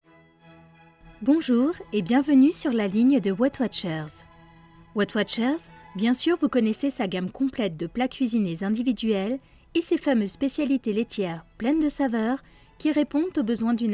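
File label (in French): attente telephonique, comédienne voix-off; Weigth-Watchers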